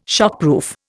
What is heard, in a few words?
"shockproof", Indian